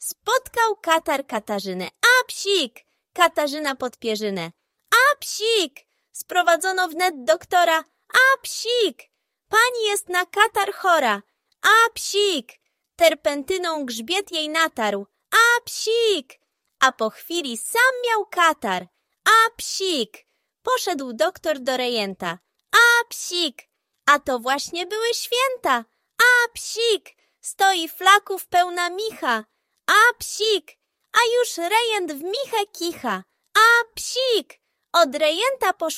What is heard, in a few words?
audiobook; Katar; Jan Brzechwa